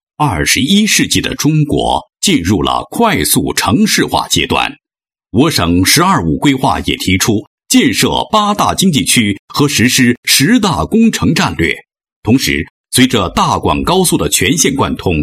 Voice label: 男, 国语男声配音

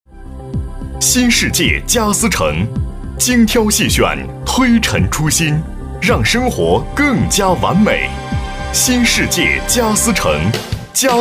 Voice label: 男80 广告 沙发城; 新世界沙发城; 央视农广

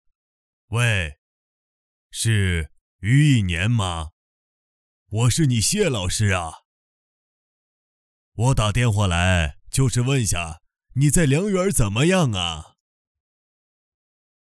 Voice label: 男, 角色模仿, 微电影, 老人

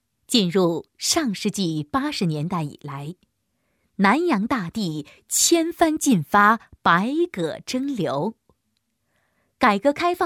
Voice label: 专题 风格 传统 平缓, 国语女声配音; 南阳

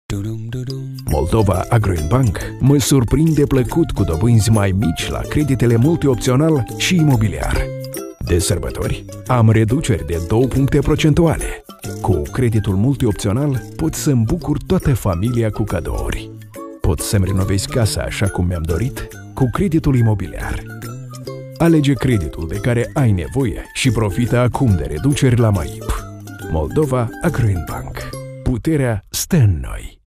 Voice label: 外籍俄语男 银行广告

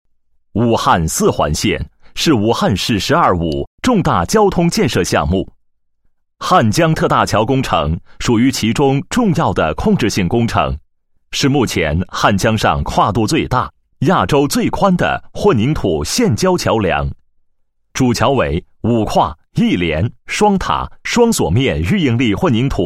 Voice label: 男 工作汇报 项目党群工作汇报; 四环线汉江特大桥

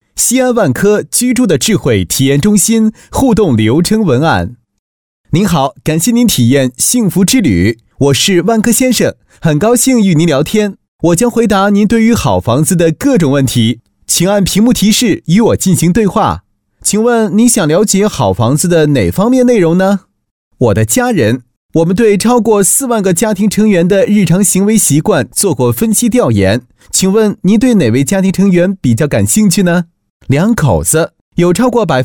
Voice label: 病毒类, 国语男声配音; 万科房子

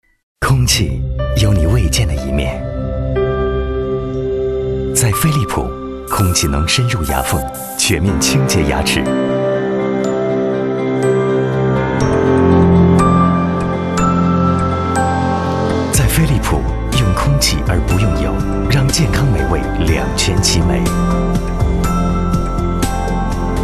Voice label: 男 广告, 国语男声配音; 飞利浦 空气篇

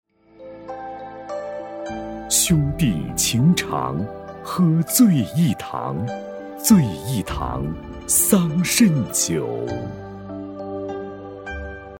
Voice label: 男 广告; 桑葚酒

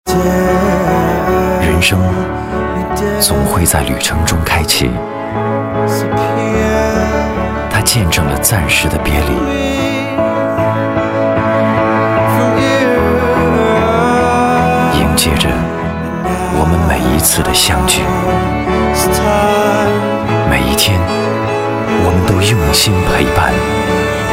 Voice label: 男 广告, 国语男声配音; 东航 品牌广告

